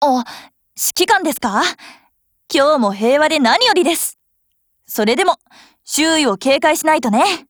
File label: HELLO JP; 97typeS